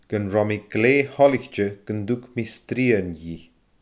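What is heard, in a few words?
"gun robh mi glee thoilichte gun dug mi srian dhi", Scottish Gaelic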